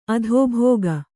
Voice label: "ಅಧೋಭೋಗ", Kannada

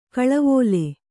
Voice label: "ಖಲೇವಾಲಿ", Kannada

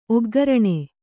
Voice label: "ಒಗ್ಘರಣೆ", Kannada

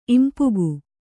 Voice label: "ಇಂಪುಗು", Kannada

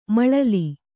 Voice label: "ಮಲ್ಲಲಿ", Kannada

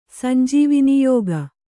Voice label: "ಸಂಜೀವನಯೋಗ", Kannada

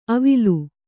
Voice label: "ಅವಿಲು", Kannada

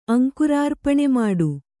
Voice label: "ಅಂಕುರಾರ್ಪಣಮಾಡು", Kannada

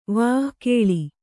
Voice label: "ವಕೀಲಿ", Kannada

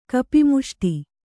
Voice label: "ಕಪಿಮುಷ್ಟಿ", Kannada

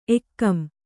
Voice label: "ಏಗಂ", Kannada

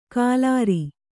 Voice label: "ಕಾಲಾರಿ", Kannada

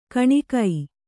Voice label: "ಕುನಿಕೆ", Kannada

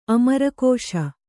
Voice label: "ಅಮರಕೋಶ", Kannada

